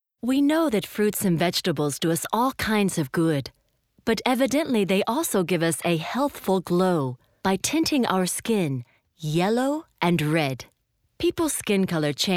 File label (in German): US Dokumentation, englisch; sprecher